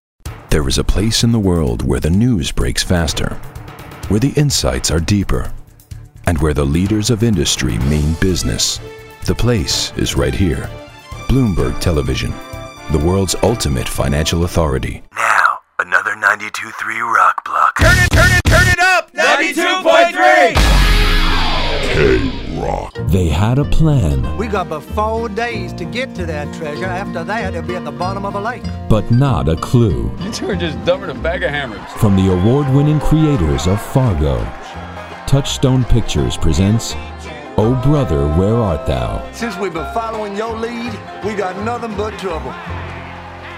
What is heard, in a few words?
Promo and Imaging